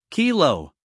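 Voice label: "Kilo", American English guy; sounds-of-american-english; everyone-can-use-english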